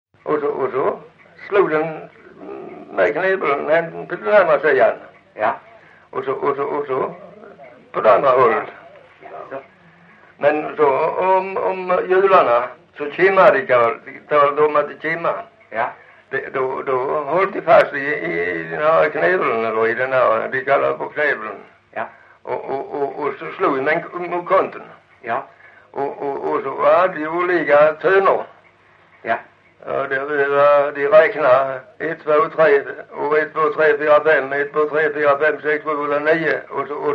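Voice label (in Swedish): Lilla Harrie Skåne, dialekter svenska sagor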